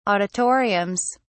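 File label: "auditoriums", British English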